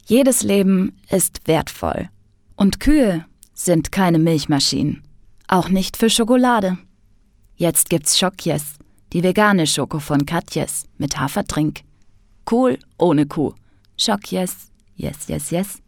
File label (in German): Werbung; Schockjes